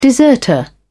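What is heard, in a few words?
"deserter", British English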